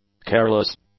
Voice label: "careless", English; adjectives

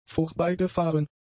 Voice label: "vorbeigefahren", German; adjectives

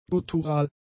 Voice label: "guttural", German; adjectives

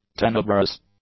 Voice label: "tenebrous", English; adjectives

